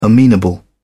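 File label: "amenable", English, British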